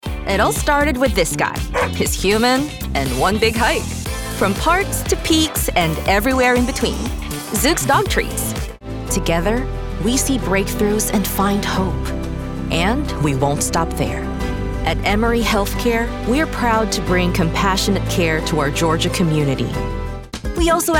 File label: Commercial